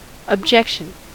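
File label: "objection", US English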